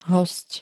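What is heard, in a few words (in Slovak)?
"hosť", Slovak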